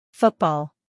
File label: "football", English